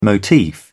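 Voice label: "motif", British English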